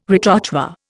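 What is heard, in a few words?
"retrochoir", Indian English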